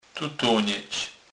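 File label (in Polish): "tutonec", Kurpie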